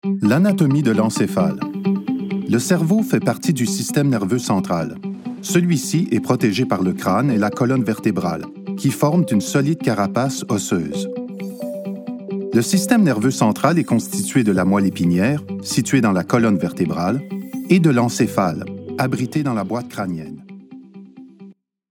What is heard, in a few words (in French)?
voix française; musée civilisation